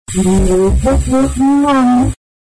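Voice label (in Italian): "scrivete", Italian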